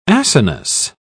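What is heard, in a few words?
"acinous", British English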